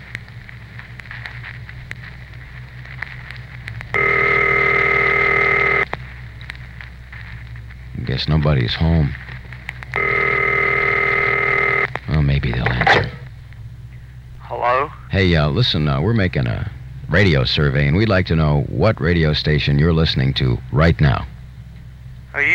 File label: Promo at random phone call sleeping guy